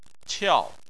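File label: "qiao", Chinese, tone 4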